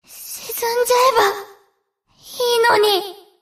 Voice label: Enemy Voice German Escort Princess Attack